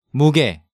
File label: "muge", Korean